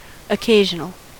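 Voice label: "occasional", US English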